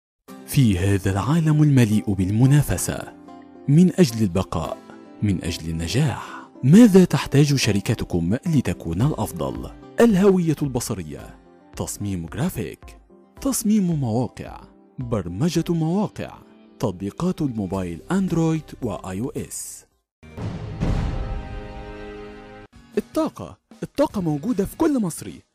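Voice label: Arabic, male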